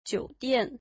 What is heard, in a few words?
"hotel", mandarin